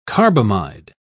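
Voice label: "carbamide", English, British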